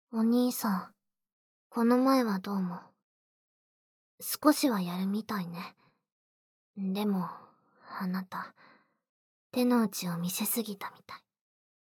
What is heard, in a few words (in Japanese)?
むわむわあんよでマッサージ SEなし, ASMR